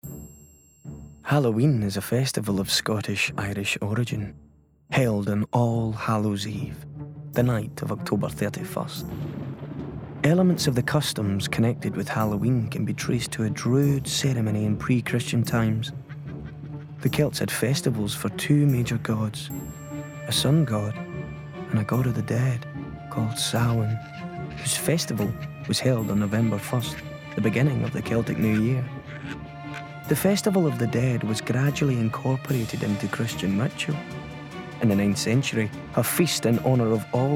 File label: Narration